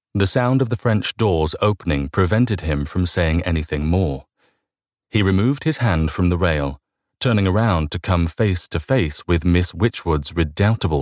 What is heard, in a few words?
midatlantic voice audiobook